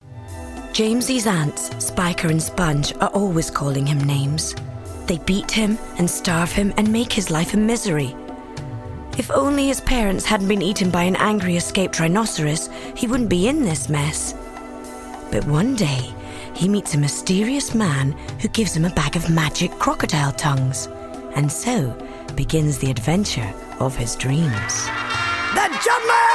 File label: Book Reading